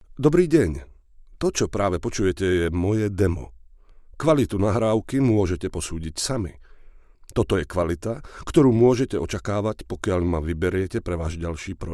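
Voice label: Slovak intro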